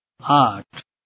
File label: "8", Bengali